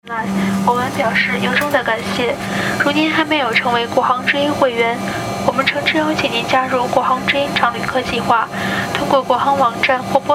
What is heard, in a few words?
airplane asia and english